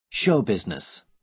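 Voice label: "show business", American English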